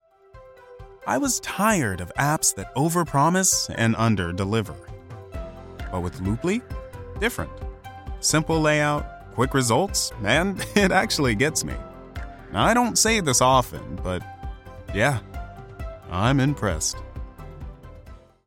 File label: ad conversational, warm, friendly, smile; Dating; Looply